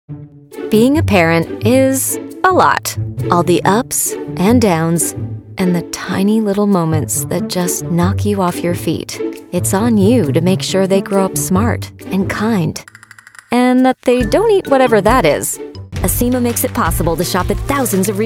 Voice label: Commercial, Women

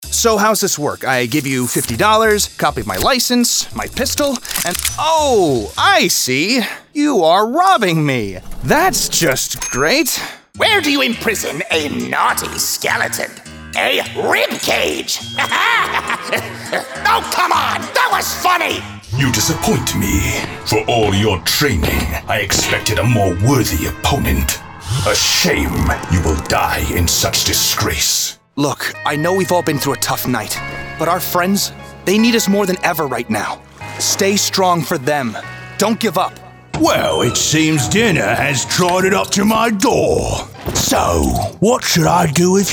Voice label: Animation Voice, Men

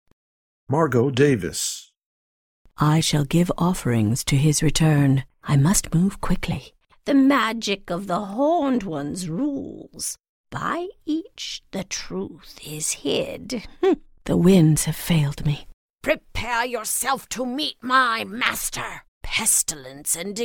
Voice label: Animation, Women

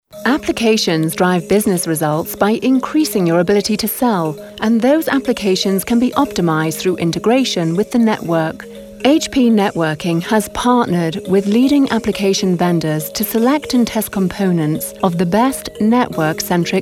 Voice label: Women Narration

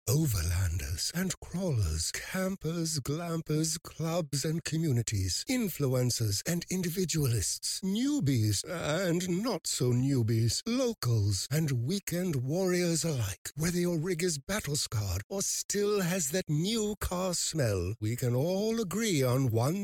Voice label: NARRATOR

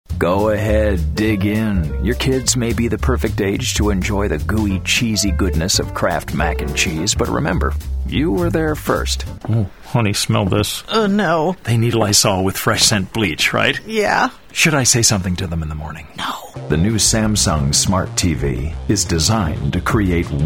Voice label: Men Commercial

